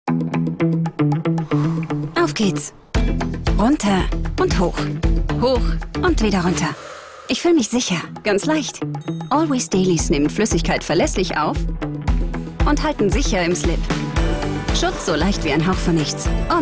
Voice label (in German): werbung tv spot; always dailies